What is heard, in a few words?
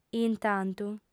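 "intantu", female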